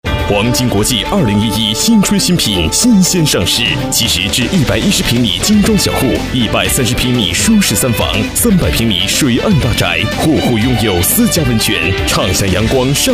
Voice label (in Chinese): 男 广告, 浑厚大气; 黄金国际